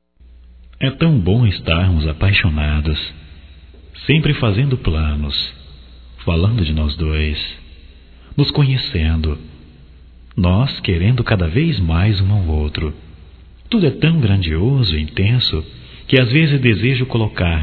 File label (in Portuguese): ROMANTICA GERAL, Românticas masc